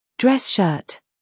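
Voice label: "humor", British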